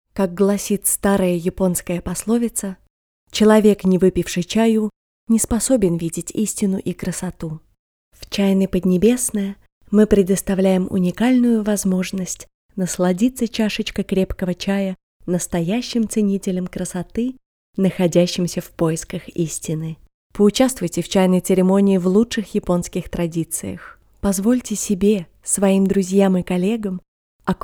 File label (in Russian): Реклама